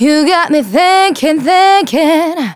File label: Phrase Female; Vengeance Samples; Phrases